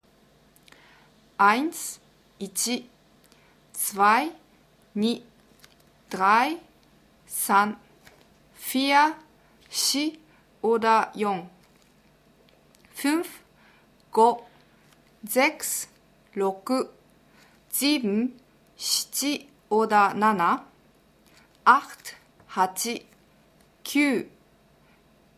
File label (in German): Deutsch Japanisch